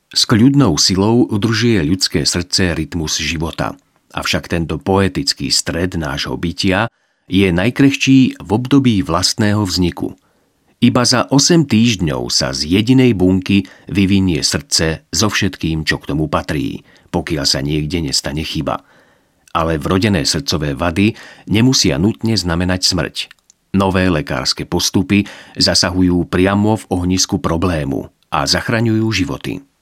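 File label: Male Slovak documentary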